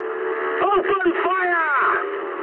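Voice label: English attack position mood high; War Thunder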